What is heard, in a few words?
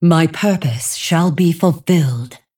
Female Dragon Good Fight Hero Thinking